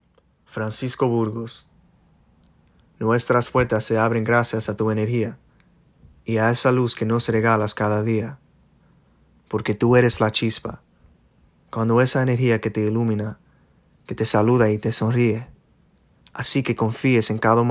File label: Spanish